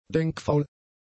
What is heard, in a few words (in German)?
"denkfaul", german; adjectives